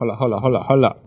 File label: "hold up hold up hold up hold up", voice; ooh ahh